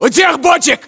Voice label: landmark near barrels, ru